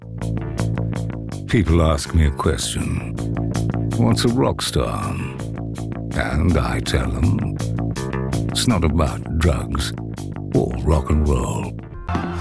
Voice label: Commercial Shorts

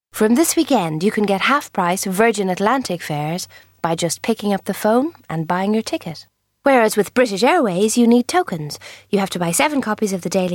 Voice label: commercials

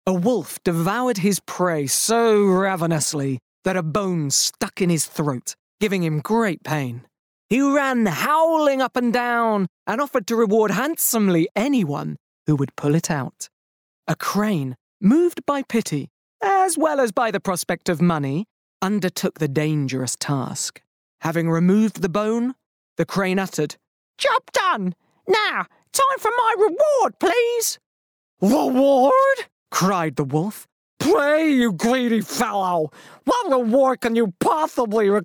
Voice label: VO Reel Audiobook

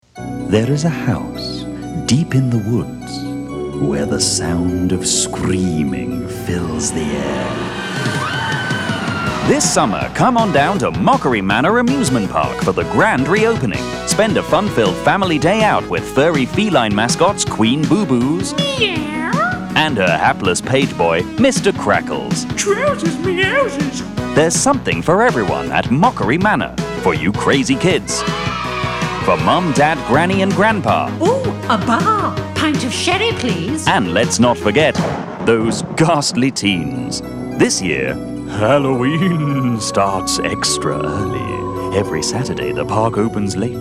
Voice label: 80s style advert

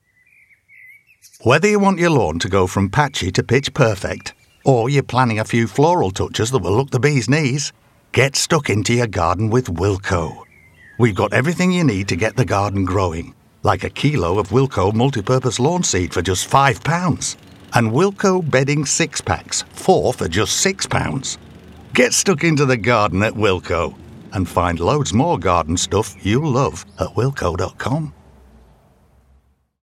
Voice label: Commercial; Wilco

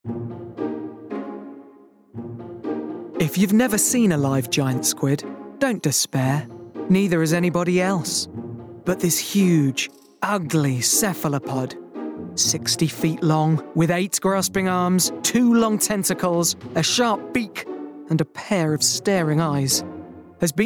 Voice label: VO Reel Narration; Squids

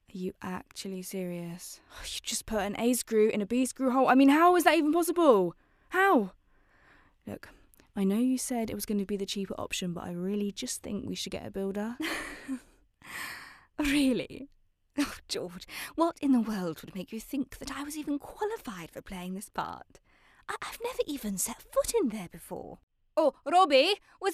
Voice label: Accent

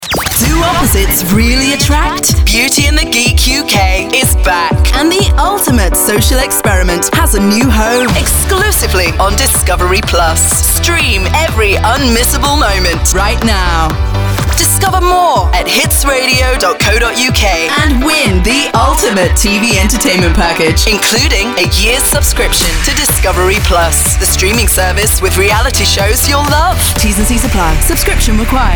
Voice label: Promo; DISCOVERY PLUS

